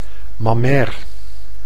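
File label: "mammair", Dutch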